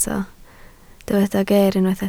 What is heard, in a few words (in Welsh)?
"geer" Welsh